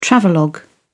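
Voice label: "travelogue", British English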